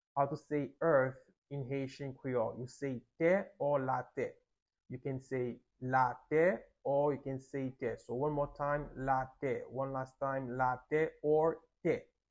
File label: Earth in Haitian Creole late te with pronunciation